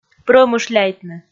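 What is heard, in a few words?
"прӧмышляйтны", Komi, female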